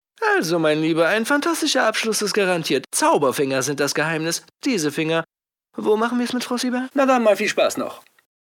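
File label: german male character; voice demos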